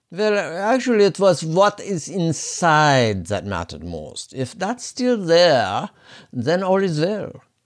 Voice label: german